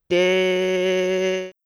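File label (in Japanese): "で", Japanese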